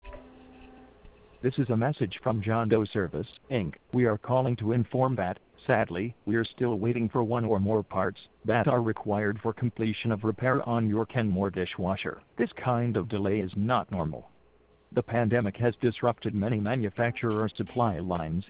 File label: Example Of Assuring Message On Waiting For Parts